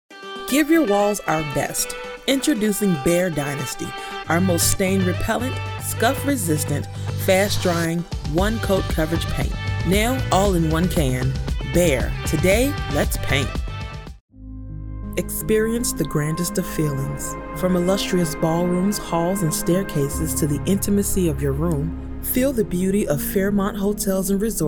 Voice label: Voiceover